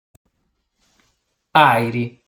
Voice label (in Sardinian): "Àiri", sardu